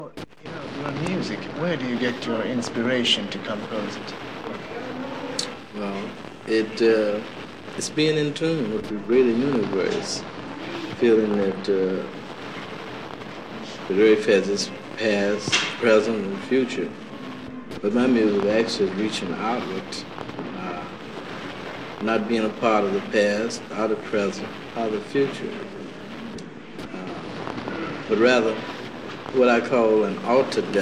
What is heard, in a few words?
voicemail